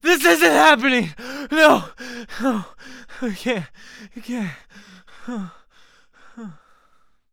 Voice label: Panic; zps; Humans; HumanMale-01